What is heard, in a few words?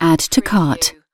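"add to cart", British female; lynne publishing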